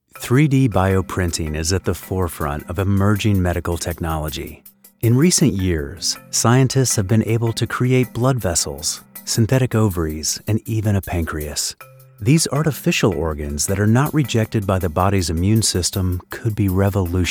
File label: Medical Elearning; Emerging Technology 3d Printing